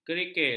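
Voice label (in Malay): "kerikil", Malay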